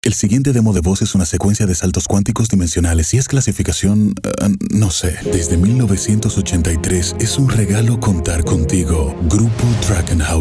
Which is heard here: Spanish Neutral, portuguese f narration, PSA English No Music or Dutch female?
Spanish Neutral